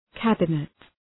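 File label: "cabinet", English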